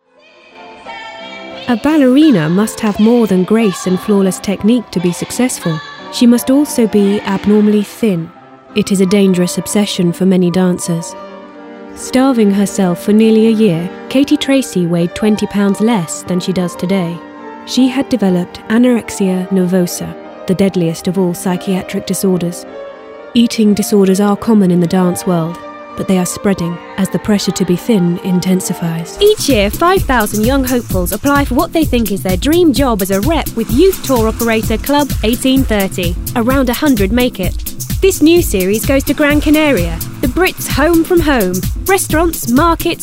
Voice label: NARRATIVE